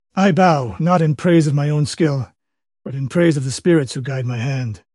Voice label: happy; kage; fertig classic games